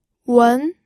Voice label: "wen2", pinyin